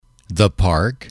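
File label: "the park", English, male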